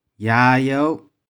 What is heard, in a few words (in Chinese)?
"ya3you5", 玉環